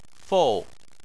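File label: "fou" fourth tone, Chinese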